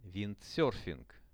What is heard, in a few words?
"windserfing", Russian; виндсёрфинг; sport; RUSSIAN VISUAL DICTIONARY